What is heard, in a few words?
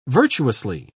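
"virtuously", English, British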